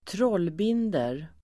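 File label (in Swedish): "trollbinder", Swedish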